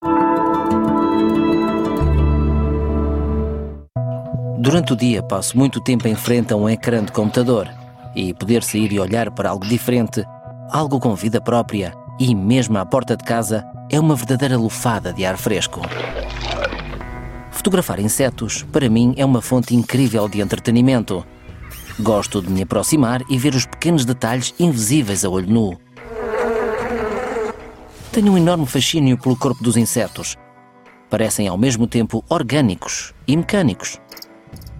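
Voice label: male voice over Portuguese soft narration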